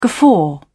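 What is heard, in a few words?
"guffaw", British English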